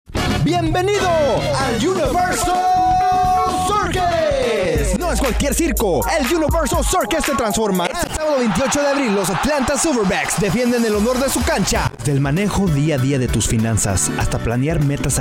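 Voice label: Commercial Spanish Male, Hard Sell, Upbeat, Younger; Spanish (Latin and Castillian), International Voiceover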